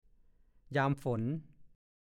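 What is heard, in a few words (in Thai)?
"ยามฝน" ภาษาโคราช; ฤดูฝน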